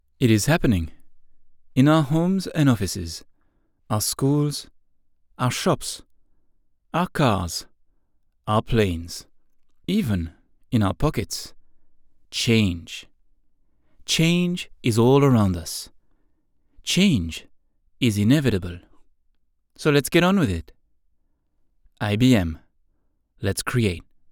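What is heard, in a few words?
French, male, English with French accent, explainer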